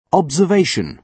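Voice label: "observation", British English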